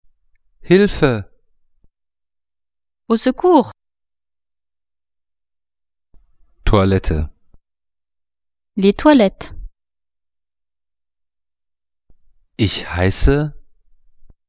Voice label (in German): franzoesisch